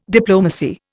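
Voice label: "diplomacy", Indian English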